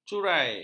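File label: "curai", Malay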